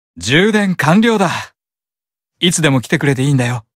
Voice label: AP Notification Voice; Diavolo